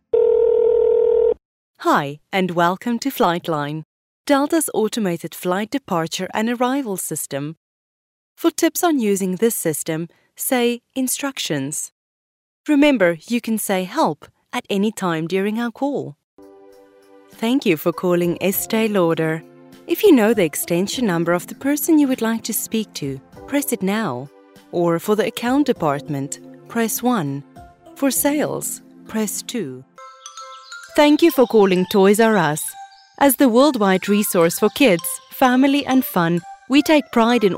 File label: VOICEMAIL